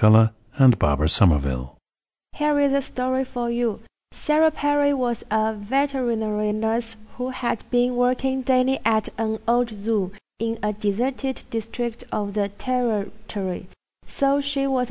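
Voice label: jiangsu, dialects of English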